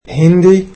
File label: "Hindi", egyptian